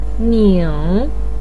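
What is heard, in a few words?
"ning3", Mandarin pinyin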